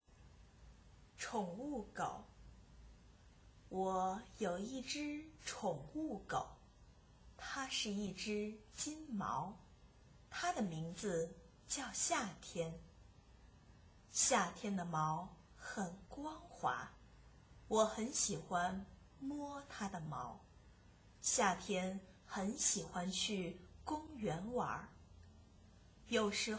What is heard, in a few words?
chinese reading; pet dog